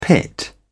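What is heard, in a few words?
"pit", British English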